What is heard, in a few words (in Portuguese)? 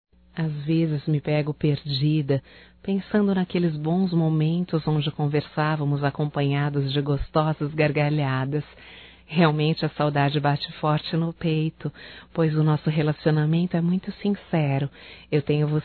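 SAUDADES AMIGO DISTANTE feminino, Telemensagens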